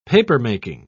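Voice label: "papermaking", British English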